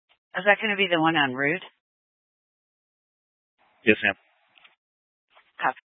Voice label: Police Dispatch; Police 1 Dispatch

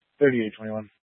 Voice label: Police Department Dispatch; Grand Junction

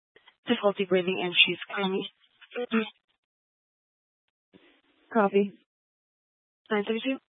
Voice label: Fire Dispatch

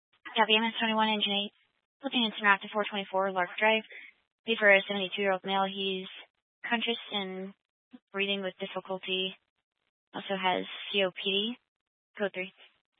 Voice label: Fire Department Dispatch, scanner

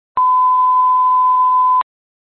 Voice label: Grand Junction Fire Department Dispatch

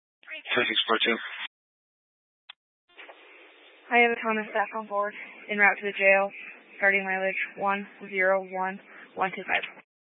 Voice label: Sheriff Dispatch; Mesa County

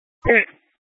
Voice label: Police Dispatch, scanner; Police 1 Dispatch

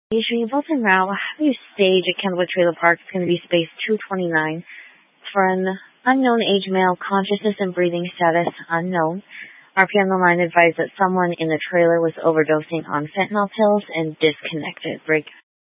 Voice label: Grand Junction Fire Department Dispatch

